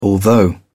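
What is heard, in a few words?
"although", British English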